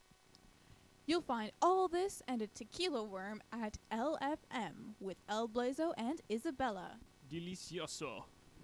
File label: voice track; radiostation